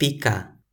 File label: "pika", Toki Pona